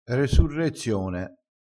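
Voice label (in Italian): "resurrezione", vocabolario dialettale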